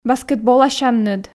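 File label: "баскетболасянныд", Komi, female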